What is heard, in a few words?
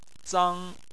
"zang1", Chinese